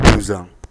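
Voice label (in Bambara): "bunsan", Bambara word; actions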